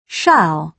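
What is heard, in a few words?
"shall", British English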